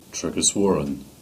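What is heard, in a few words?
"Tregajorran", Cornish; place-names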